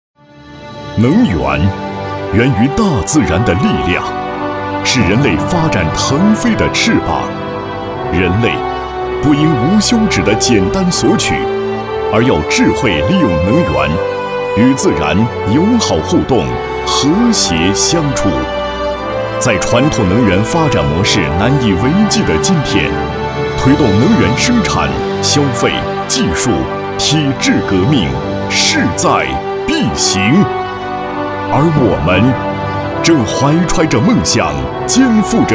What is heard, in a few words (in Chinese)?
专题 企业 能源公司 大气, 男国